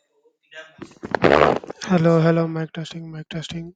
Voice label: voice message; whatsapp